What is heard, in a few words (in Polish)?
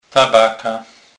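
"tabaka", Kurpie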